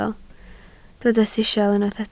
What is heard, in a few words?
"sisial", Welsh